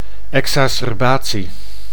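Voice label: "exacerbatie", Dutch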